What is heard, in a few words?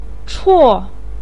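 "chuo4", Mandarin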